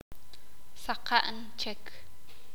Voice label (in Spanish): "un poco", Sakapulteko